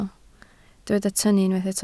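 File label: "tynnu" Welsh, spoken word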